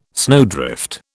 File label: "snowdrift", British